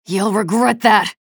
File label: chatter negative; nyx; respawn